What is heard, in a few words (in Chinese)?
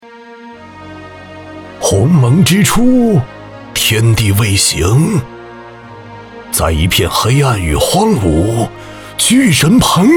男 角色 老年音 神秘介绍旁白